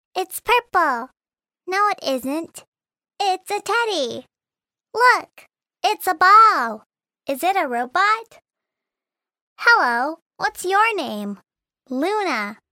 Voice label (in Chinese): 美式英语 甜美女孩, 外语